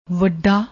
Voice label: "wadda", Punjabi

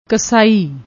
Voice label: "ksaai", Punjabi

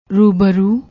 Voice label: "rooboroo", Punjabi

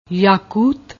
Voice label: "yakut", Punjabi

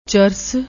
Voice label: "charas", Punjabi; ਚਰਸ

